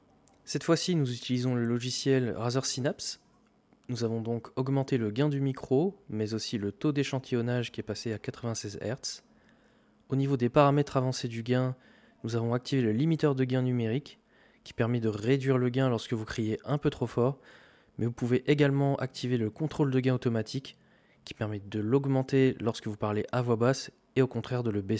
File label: razer micro test